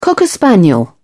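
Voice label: "cocker spaniel", British English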